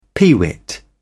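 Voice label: "peewit", English, British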